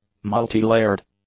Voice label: "multi-layered", English; adjectives

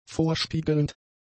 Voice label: "vorspiegelnd", German; adjectives

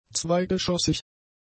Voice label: "zweigeschossig", German; adjectives

